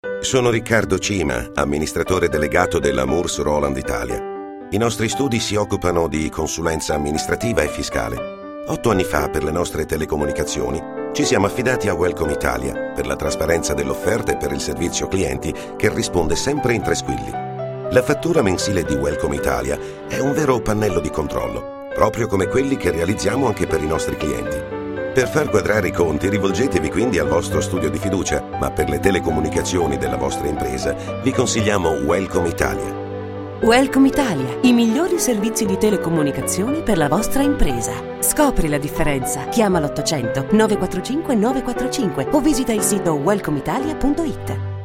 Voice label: Radio Spot; Radio24; Moores Rowland